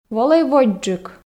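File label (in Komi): волӧй водзджык, Komi, female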